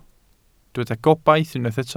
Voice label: "gobaith", Welsh